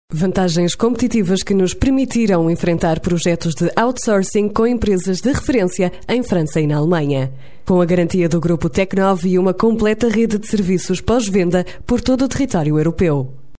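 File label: portuguese female narration; voice demos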